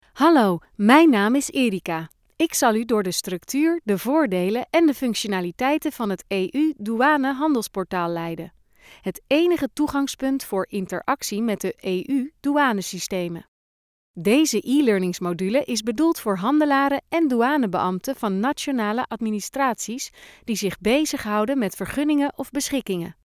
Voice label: dutch e-learning